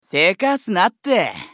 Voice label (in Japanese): スカウト男 移動